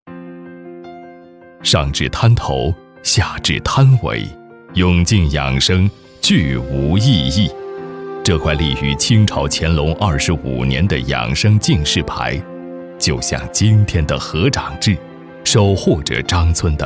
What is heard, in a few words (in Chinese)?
男 大音 城市 乡村振兴; 江西婺源